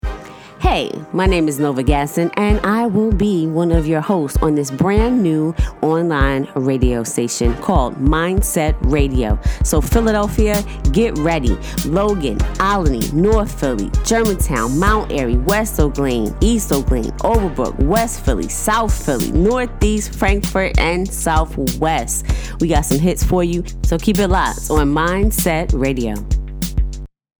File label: Radio Philly Promo